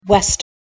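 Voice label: "west", English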